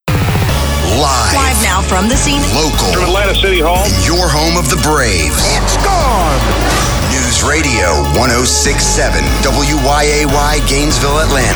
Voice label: Male-30's-40's, Strong, punchy reads that bring copy to life!; Imaging Demo